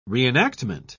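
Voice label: "reenactment", English, uk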